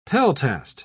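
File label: "peltast", English, British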